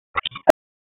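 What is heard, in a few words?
Police Spec Ops Tac, radio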